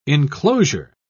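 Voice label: "inclosure", British English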